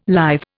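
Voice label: "lithe", Indian English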